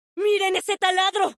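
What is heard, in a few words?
Latin American Spanish; 探奇（守望先锋2）; 终极技能; ¡Miren ese taladro!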